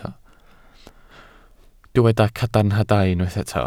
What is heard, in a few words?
"cadarnhadau", Welsh